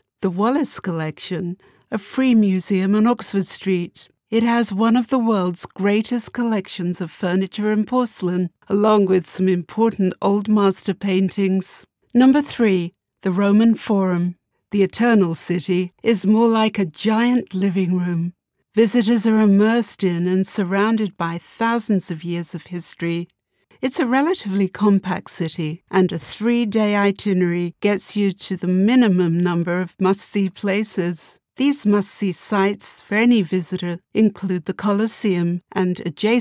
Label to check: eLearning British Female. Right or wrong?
Right